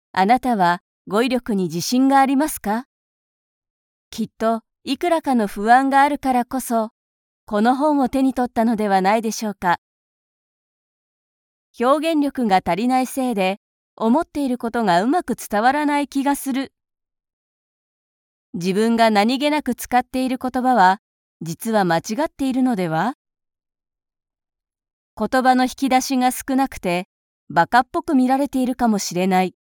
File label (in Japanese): オーディオブック